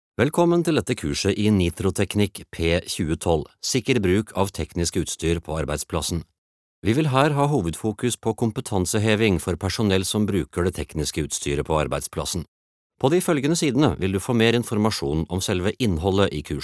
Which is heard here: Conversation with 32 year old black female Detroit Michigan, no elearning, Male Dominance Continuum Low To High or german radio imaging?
no elearning